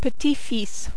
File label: "petit fils", French